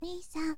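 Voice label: girl, "nisan"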